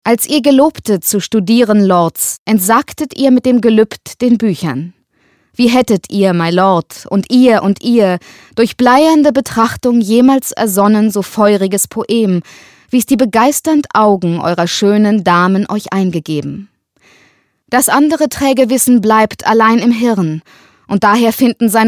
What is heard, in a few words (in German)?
synchron